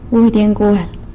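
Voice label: "budy ngual", male turkey, San Lucas Quiaviní Zapotec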